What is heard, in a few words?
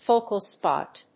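"Focal spot", English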